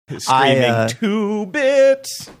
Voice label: screaming two bits

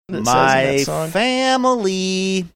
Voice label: saying my family sing songy

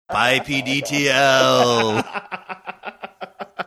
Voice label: saying spooky manner

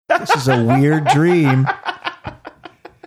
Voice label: laughing saying this is a weird dream

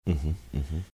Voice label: quietly saying mmm hmm

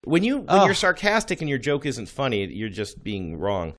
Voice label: sarcastic joke wrong

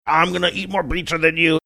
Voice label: saying im gonna eat more pizza than you funny

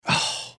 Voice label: quiet "oh"

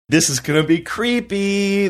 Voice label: saying this is gonna be creepy sing songy

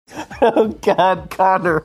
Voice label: laughing saying oh god

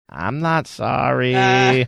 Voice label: saying im not sorry funny

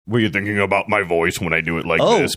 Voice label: doing deep weird voice again

